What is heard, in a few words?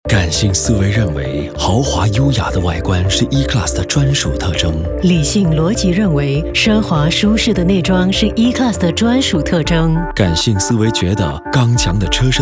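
Mandarin; Mercedes-Benz E-Class Sustenance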